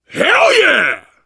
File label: reaction positive, voice, biker; Left 4 Dead 2; survivor